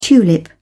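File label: "tulip", British English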